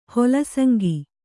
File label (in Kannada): "ಹೊಲಸಂಗಿ", Kannada